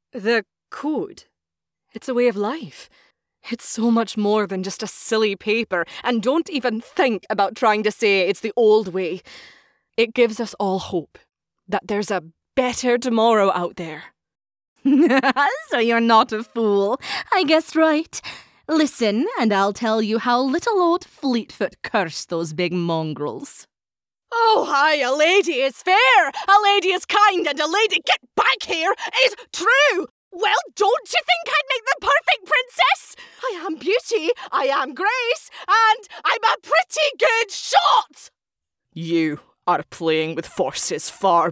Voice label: Scottish

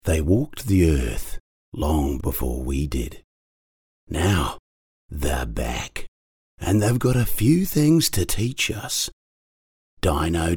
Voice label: Cinema Trailer

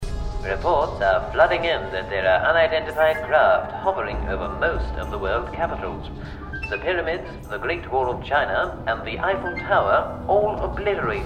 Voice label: Accent Character British

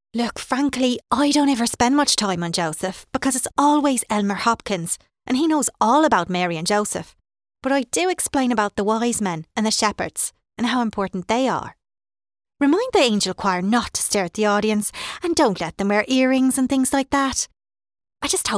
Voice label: Irish accent

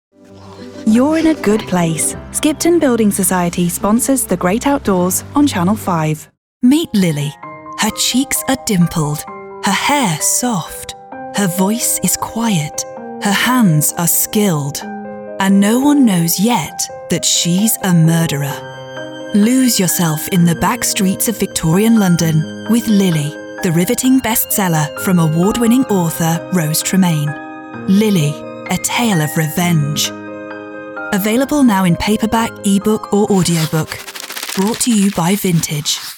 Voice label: Promo

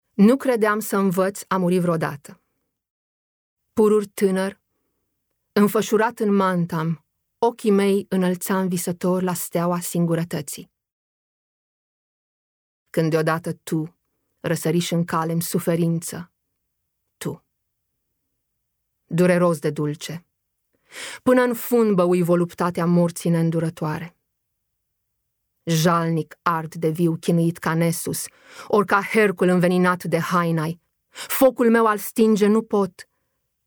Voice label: Romanian

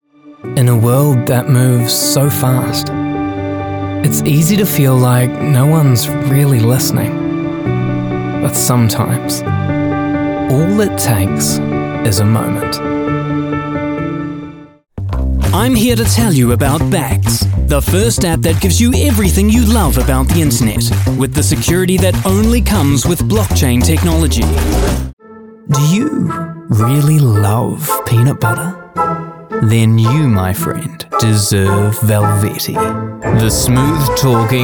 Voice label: Commercial NZ

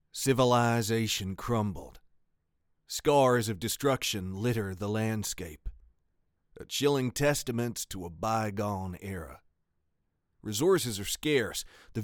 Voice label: United States Southern

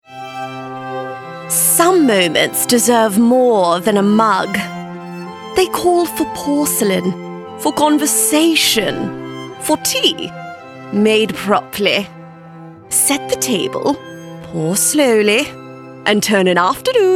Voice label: Character

